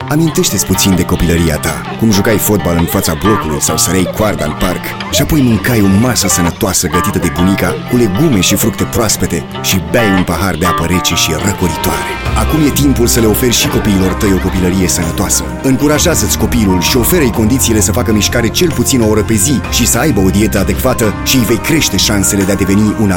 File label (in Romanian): Spot radio; VIATA; Adultii